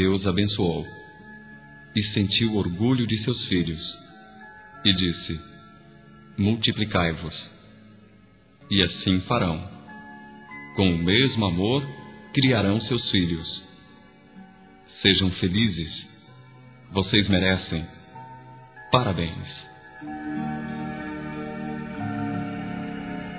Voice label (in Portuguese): HOMENAGEM AOS NOIVOS PELO CASAMENTO M, Telemensagens; Homenagens; masc